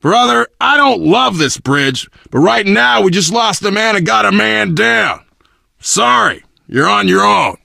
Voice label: initial meeting, survivor voice biker